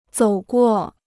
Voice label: "走过", Chinese

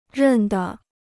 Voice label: "认得", Chinese

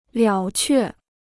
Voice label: "了却", Chinese

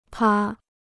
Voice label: "趴", Chinese

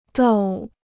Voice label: "斗", Chinese

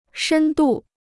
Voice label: "深度", Chinese

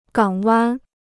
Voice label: "港湾", Chinese

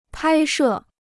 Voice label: "拍摄", Chinese

